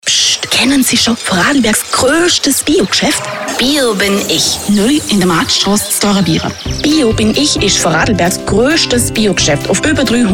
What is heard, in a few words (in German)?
Werbespot